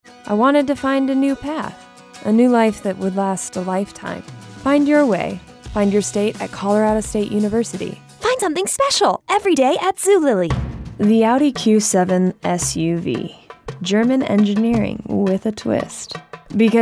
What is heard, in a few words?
Commercial